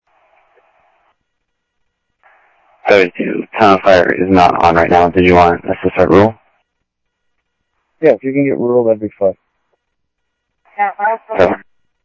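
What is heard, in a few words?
Sheriff Lake Patrol, scanner audio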